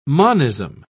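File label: "monism", English, British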